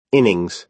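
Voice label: "innings", American English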